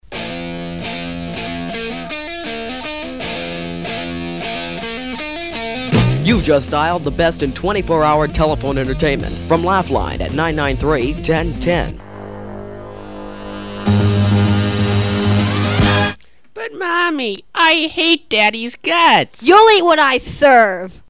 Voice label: Whine